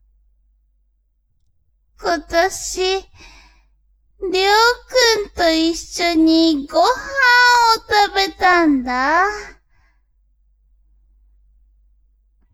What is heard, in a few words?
woman